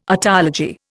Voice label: "otology", Indian